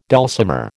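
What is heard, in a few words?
"dulcimer", American English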